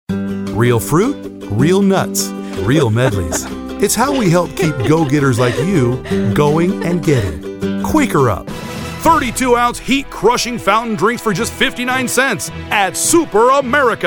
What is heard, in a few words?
MALE